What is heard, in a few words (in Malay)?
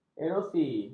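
"erosi", Malay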